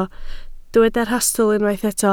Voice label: "rhastl", Welsh